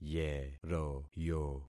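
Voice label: "yeroyo", concatenated syllables; Affixing experiment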